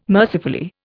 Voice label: "mercifully", Indian

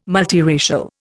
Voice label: "multiracial", Indian

